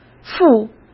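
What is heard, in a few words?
"赴", Mandarin Chinese; hsk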